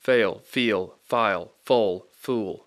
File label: fail feel file foal fool, Rapid Sound Practice; Speech Pro